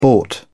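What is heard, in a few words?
"bought", British English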